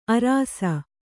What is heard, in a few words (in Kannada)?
"ಆರ್ಷ", Kannada